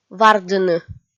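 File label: "вардыны", Komi-Zyrian, female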